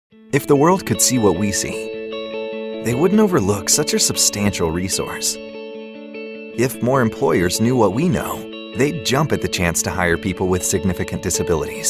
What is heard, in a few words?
Corperate Narration